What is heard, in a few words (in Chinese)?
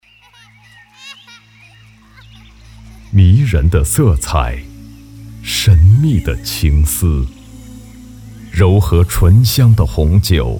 男 广告; 奔富酒庄